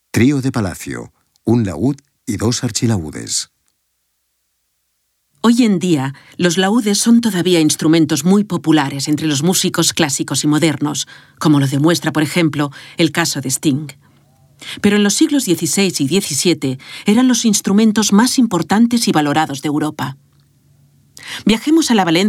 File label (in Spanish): audioguia; delamusica espanyol